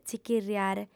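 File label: "tzichirriare", Sardinian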